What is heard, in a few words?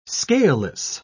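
"scaleless", British English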